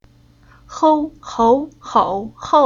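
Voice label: "Hou", Chinese syllable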